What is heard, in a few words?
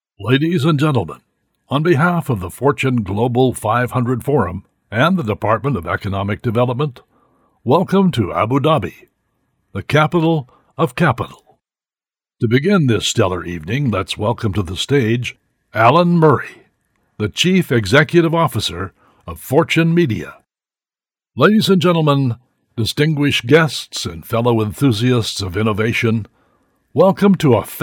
conference VOICE OF GOD; Fortune 500 UAE